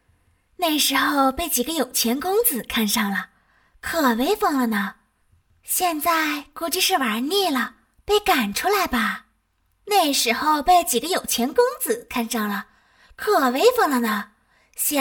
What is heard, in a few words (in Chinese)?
恶毒女配